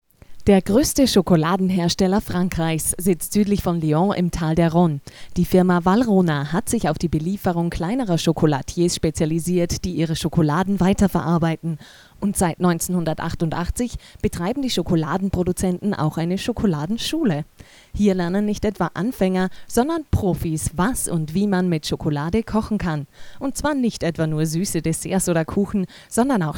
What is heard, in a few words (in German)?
Anmoderation; Region: Österreich / Wien / Vorarlberg